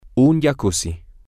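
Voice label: a Jacuzzi, Spanish, male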